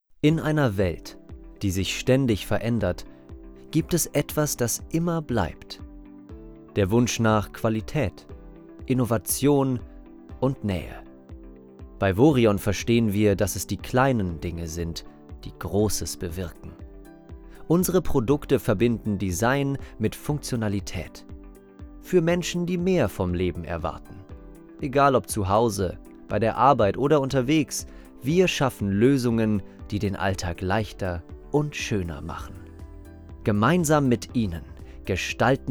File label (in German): Imagefilm mit Musik; Imagefilm Vorion